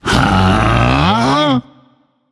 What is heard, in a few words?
confused; smite; zeus